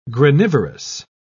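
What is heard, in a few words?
"granivorous", English, British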